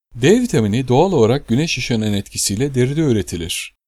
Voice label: Turkish Male Voice over D vitamini